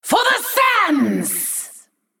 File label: Female Naga Attack